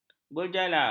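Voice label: "berjalar", Malay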